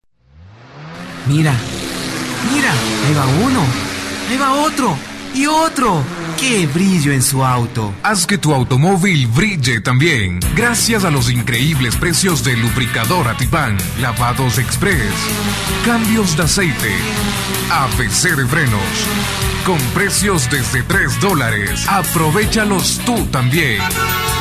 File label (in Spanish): cuña; lubricadora tipan